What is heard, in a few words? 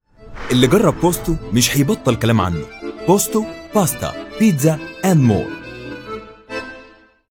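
Egyptian Commercial; Posto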